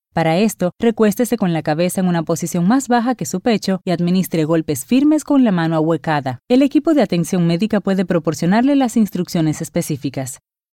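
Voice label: Latin American Spanish, Infomercial; GoLocalise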